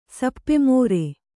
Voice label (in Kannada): "ಸಪ್ಪೆಮೋರೆ", Kannada